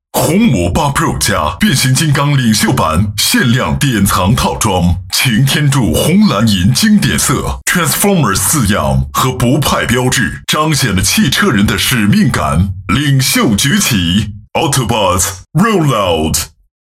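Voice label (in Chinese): 男中英文; 变形金刚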